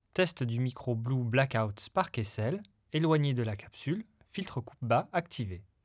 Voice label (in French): Voix Filtre bas active Eloigne; SparkSL